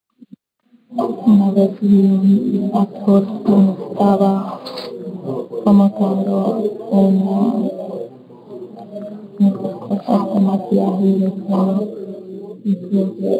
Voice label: Background noise and poor recording quality; testing with the AAPPL and ALIRA